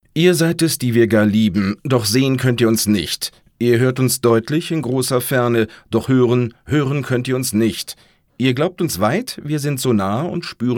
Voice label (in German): sachlich, maenner